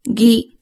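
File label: "gi", Japanese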